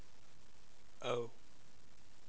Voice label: Vowels voiced o